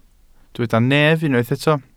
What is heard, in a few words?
"nef", Welsh